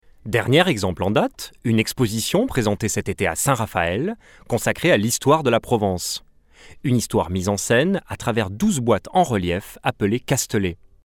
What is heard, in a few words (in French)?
H news; FR; Français